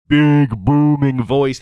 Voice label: big booming voice